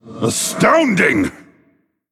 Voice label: Male Undead, WOW